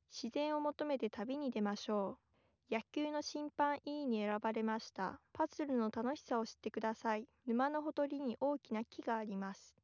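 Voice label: female, live recording, 250 ms, source; SiSEC11; underdetermined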